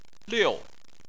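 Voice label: "liu", Chinese, fourth tone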